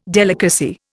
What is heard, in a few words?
"delicacy", Indian